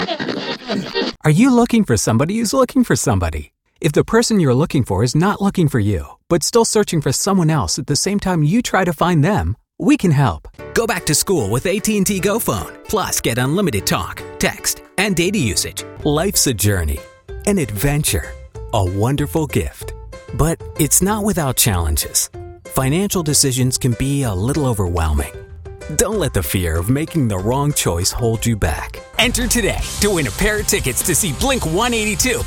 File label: Commercial